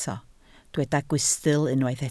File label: "gwystl", Welsh